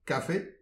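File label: "kafe", Breton; petit precis de prononciation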